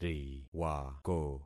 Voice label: "riwako", concat syllables; Affixing experiment